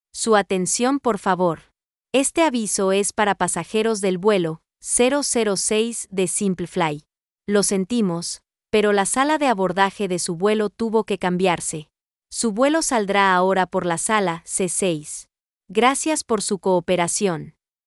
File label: gate Change, voice announcement